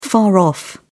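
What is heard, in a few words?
"far off", British English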